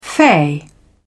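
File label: "fey", English, British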